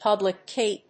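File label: "public key", English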